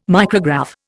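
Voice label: "micrograph", English, Indian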